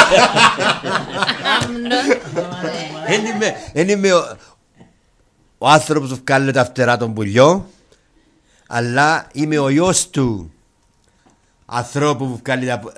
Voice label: tongue twisters in greek